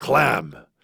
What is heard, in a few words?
Banter; Warframe; Clem; Dialog Barks Battle